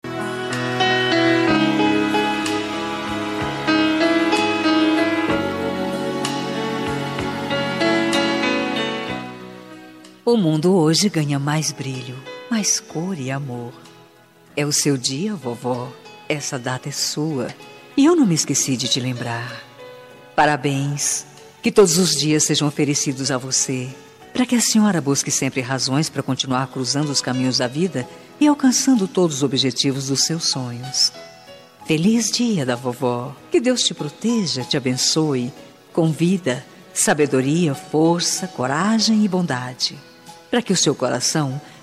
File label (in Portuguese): dia da avó, voz feminina; dia dos avos para avo voz feminina